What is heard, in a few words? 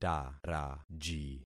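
"taraji", concatenated syllables; Affixing experiment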